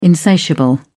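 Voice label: "insatiable", British English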